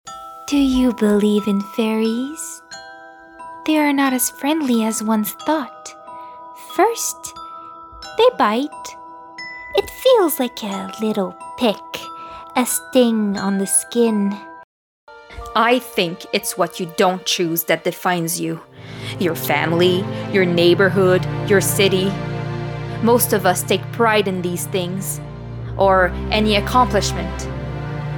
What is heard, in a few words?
voice characters